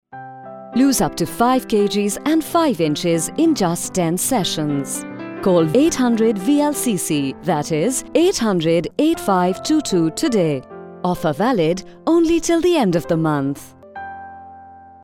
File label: Radio Spot; VLCC Dubai